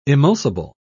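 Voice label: "emulsible", British English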